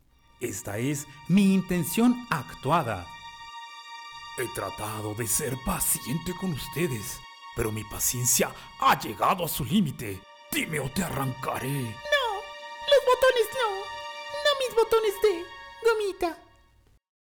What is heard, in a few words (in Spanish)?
ACTUADA; locutor